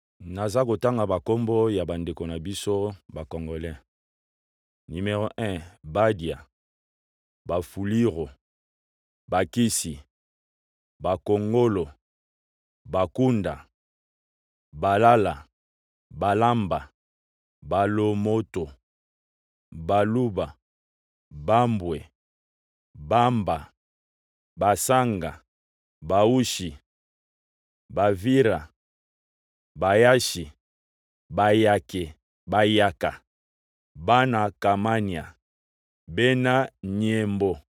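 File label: Lingala, male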